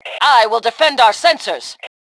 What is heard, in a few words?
Self Defend Sensors, Female; T2 Female 02